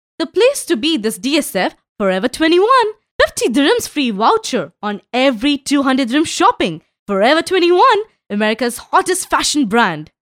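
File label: Hindi English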